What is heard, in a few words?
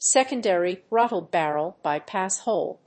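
"secondary throttle barrel by-pass hole", English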